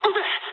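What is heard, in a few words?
Japanese attack position mood low; War Thunder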